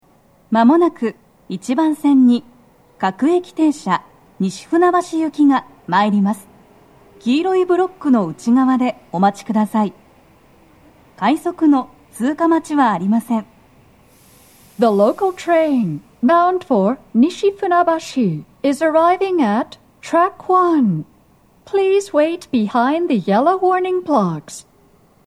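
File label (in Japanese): 接近放送, 女声, Panasonic天井型での収録; 放送は「各駅停車 西船橋行き」です。快速 の通過待ちはありません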